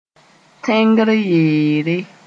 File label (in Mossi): "tẽngr yeere", Moore